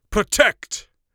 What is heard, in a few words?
protect, Mage Voice; Mage Voice Pack